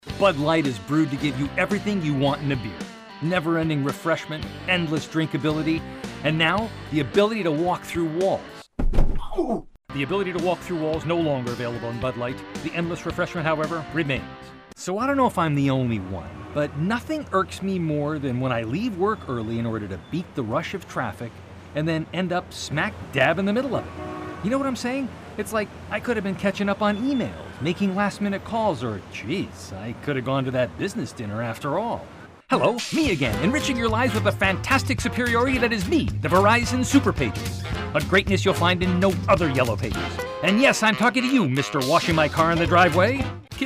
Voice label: Commercials